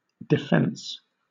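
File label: "defence", British English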